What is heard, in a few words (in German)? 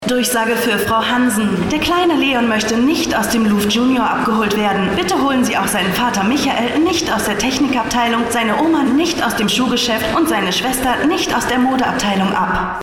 Spieltake Durchsage gelangweilt